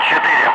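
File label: Russian speech, Ka-50 Wingman; Digits; DCS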